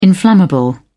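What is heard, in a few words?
"inflammable", British English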